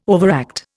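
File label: "overact", Indian English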